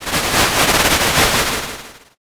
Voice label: whisper